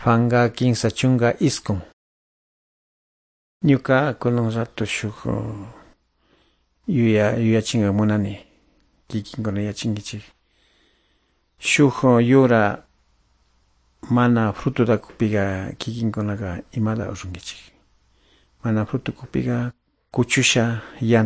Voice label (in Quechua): good news quichua de calderon